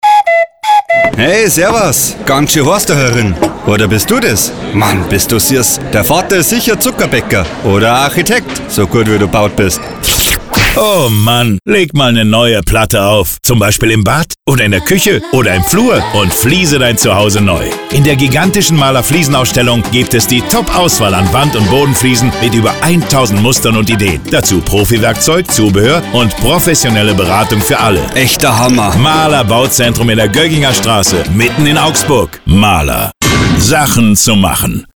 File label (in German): Radiospot; Mahler Bauzentrum Radiokampagne; mahler kampagne 2016